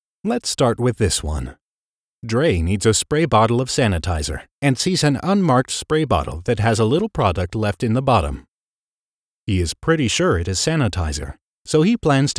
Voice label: eLearning